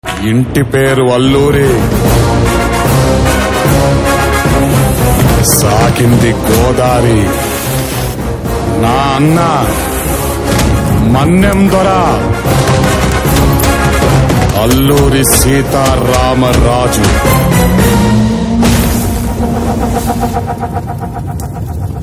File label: Dialogue Ringtone; RRR; Bheem For Ramaraju; Jr. NTR